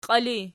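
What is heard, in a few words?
"qiili" nominative, Archi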